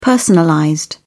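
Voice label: "personalized", British English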